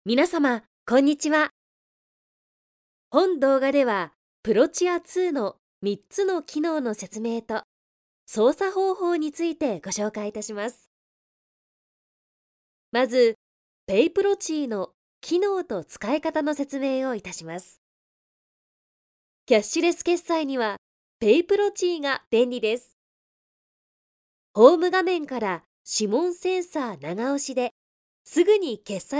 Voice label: female